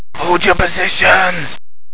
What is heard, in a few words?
WHISPER